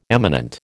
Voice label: "eminent", American

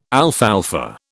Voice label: "alfalfa", British

